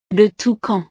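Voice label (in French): "le toucan", French; el tucán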